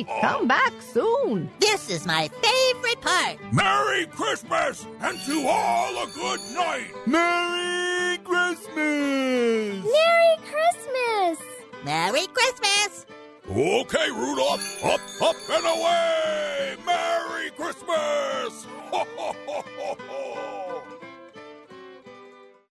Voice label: santa pack promo